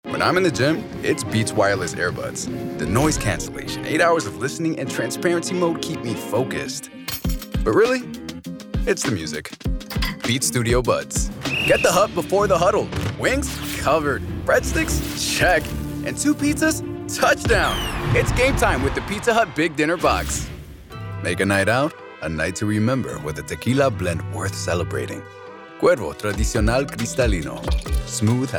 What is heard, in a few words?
commercial, voiceover; commercial demo